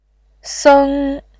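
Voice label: "seng", Mandarin Chinese, first tone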